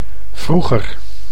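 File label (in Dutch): "vroeger", Dutch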